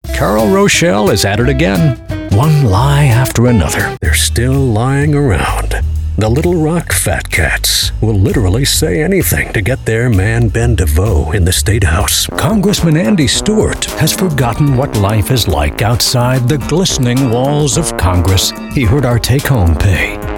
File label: Political Fun Folksy Sarcastic